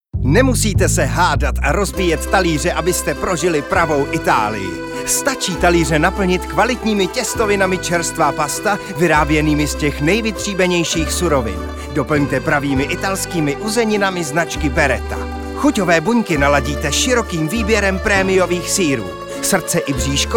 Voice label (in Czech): reklama; Rohlik